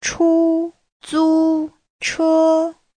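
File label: "chūzūchē", Chinese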